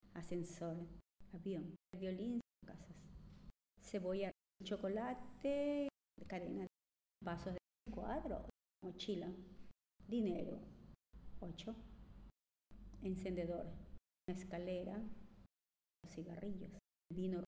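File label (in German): Zusammengefugte Worter Schnell Spanisch, female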